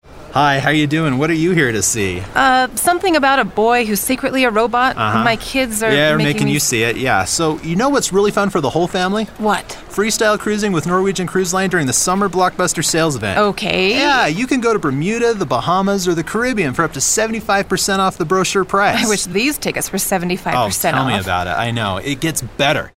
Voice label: Man on Street